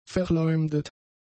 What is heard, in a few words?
"verleumdet", German; adjectives